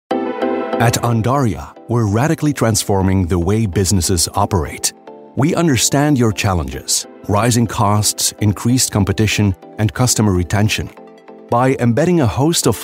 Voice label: midatlantic voice corporate